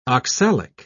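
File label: "oxalic", British English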